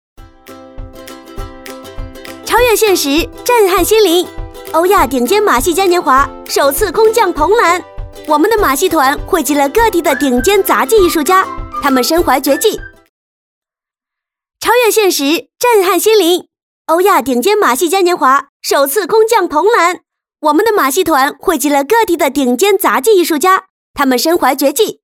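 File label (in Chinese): 年轻活力马戏嘉年华; 年轻活力-欧亚顶尖马戏嘉年华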